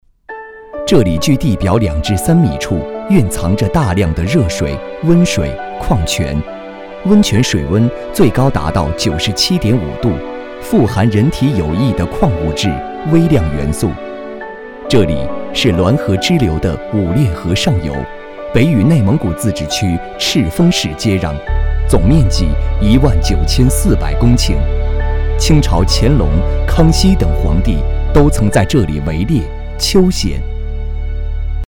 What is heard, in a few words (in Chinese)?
男 景区